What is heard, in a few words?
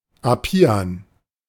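"Apian", German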